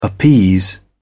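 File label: "appease", British English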